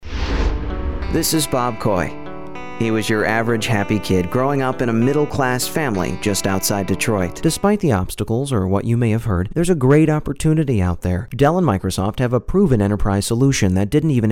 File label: narration